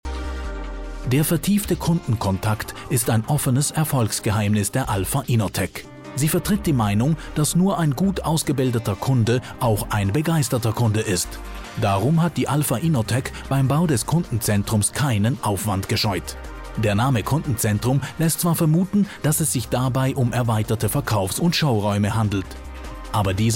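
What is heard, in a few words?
german male commercial; voice demos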